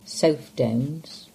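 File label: "South Downs", English; place-names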